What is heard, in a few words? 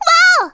Wao, Voice Breath; Vocaloid 6.5.1; Otomachi; VOCALOID6 AI OtomachiUna Spicy